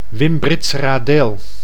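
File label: "Wymbritseradeel", Dutch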